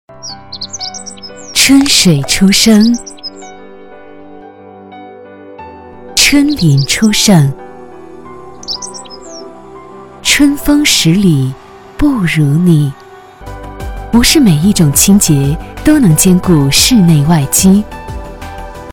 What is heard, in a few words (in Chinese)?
女 广告 品牌形象 圆柱柜机空调